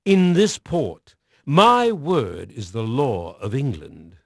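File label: English male; Pirates Of The Caribbean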